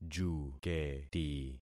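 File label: "juketi", concatenated syllables; Affixing experiment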